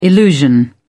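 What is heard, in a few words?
"illusion", British English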